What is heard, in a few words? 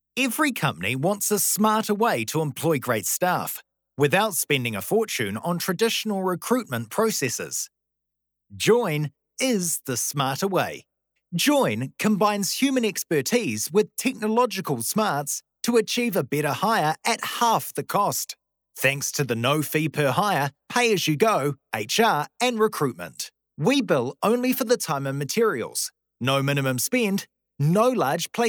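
New Zealand Male Voice Talent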